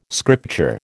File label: "scripture", American